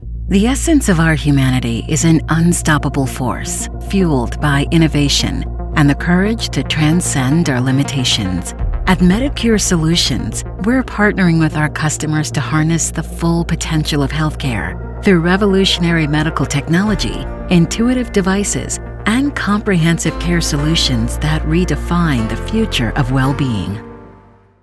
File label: Corporate, Upbeat Encouraging, Female Voice; MEDICURE SOLUTIONS